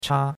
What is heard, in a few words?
"cha1", chinois; Cours-de-Chinois